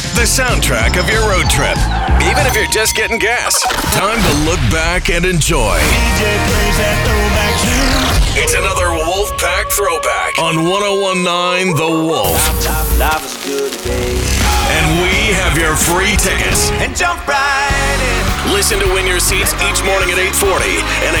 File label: radio imaging men country